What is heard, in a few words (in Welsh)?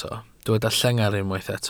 "llengar" Welsh